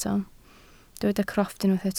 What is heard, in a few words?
"crofft", Welsh